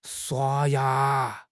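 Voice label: "shua1 ya2", Mandarin Chinese; HSK3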